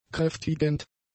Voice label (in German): "kräftigend", German; adjectives